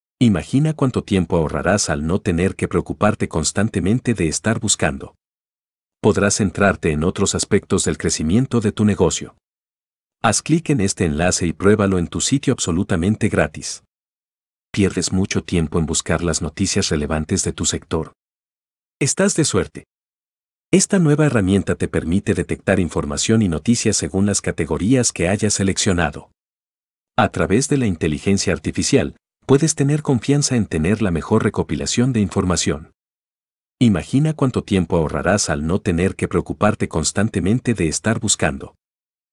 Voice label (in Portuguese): Espanhol; locutores nativos